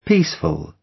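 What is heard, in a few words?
"peaceful", British English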